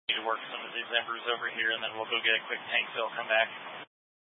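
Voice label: Incident Tac 1, Scanner audio recording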